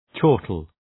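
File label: "chortle", English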